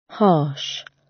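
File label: "harsh", British; Crime and punishment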